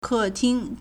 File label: "ke4ting1", Chinese